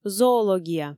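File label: "зоология", Komi, female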